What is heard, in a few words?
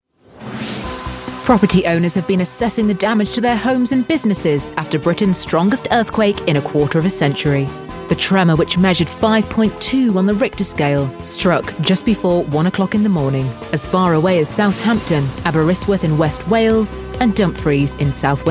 northern voice corporate